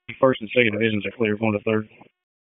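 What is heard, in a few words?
FIRE Operations, p25; FIRE OPS 1; wake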